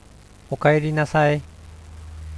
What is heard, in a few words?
"o-kaeri-nasai", Japanese; aisatsu